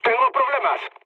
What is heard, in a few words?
aircraft damaged, mood low, speech; War Thunder